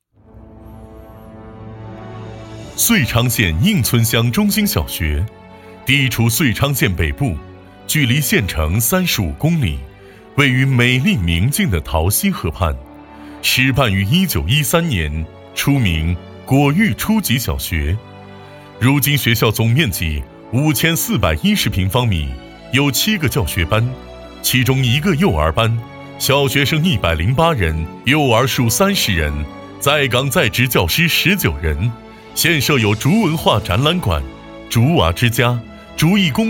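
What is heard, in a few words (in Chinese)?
男【宣传片】; 遂昌县应村乡中心小学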